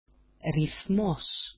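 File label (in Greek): "rithmos", Greek; ρυθμός; [riθ'mos]; rhythm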